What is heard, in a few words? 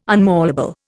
"unmalleable", Indian